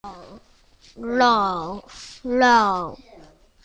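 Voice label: "low", spoken word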